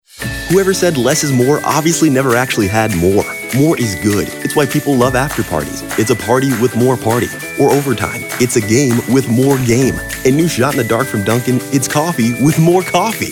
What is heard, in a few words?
Commercial; TaDa